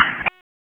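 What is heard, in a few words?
Raleigh Police Special Operations Tactical, p25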